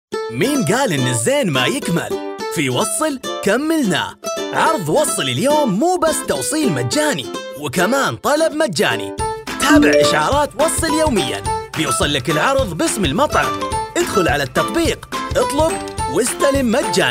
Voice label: Arabic Radio TV Commercial Voice